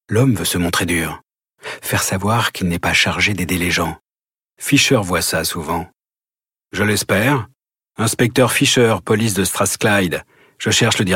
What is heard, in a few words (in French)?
Livre Audio